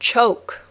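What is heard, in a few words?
"choke", English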